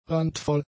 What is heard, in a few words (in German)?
"randvoll", German; adjectives